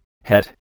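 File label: "hat", English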